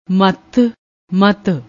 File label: "matt", Punjabi